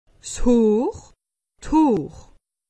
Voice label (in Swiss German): "hoor", alsace; medecine